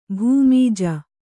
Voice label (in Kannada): "ಭೂಮಿಜ", Kannada